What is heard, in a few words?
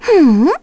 Deny, kr; Ophelia; Kings Raid